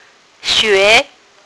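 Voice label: "xue2", Mandarin Chinese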